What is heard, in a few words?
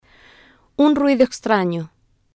"Un Ruido Extraño", spanish; Mechanic